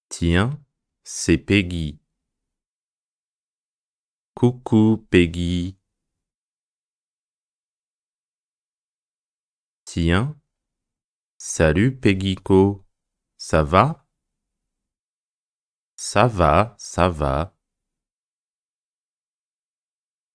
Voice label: conversation fast